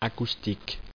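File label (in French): "acoustique" French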